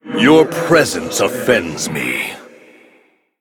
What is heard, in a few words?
Male Ghost